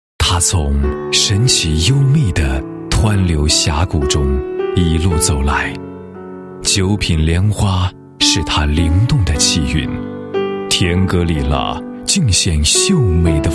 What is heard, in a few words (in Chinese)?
男 地区宣传 解说词; 新告